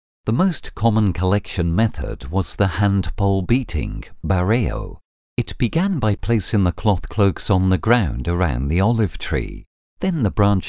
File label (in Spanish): locutor ingles english voiceover, grabación de guía cultural para el museo; grabación de guía cultural para el museo del Olivo; audioguide voice over